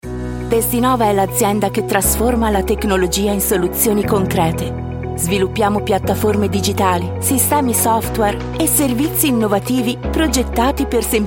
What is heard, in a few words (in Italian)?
italian corporate